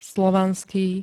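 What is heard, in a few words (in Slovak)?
"slovanský", Slovak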